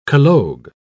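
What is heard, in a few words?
"collogue", British English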